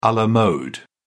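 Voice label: "a la mode", British English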